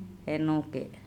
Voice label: "enoke", andamanese

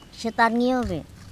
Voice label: how are you, Andamanese; Setan ngyo be

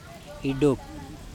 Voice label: "iDop", andamanese; raw oil

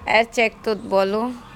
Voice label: Angered person, andamanese; ErcEktutbolo

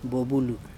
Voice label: "kanakaUa bobulu", Andamanese; bird

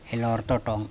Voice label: "elorto tong", andamanese

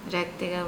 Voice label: "rEkteka", andamanese

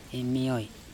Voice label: "emioe", andamanese; sour

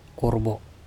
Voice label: "korbo", andamanese

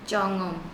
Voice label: "coNOm", Andamanese

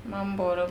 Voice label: "meNam boro", andamanese; we all

